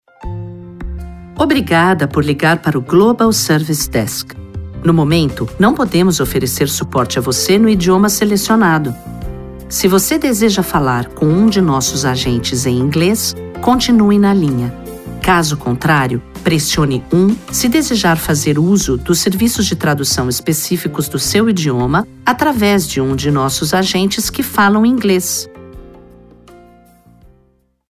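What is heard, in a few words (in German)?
PT BR, Brazilian Portuguese, Fremdsprachen für Telefonansage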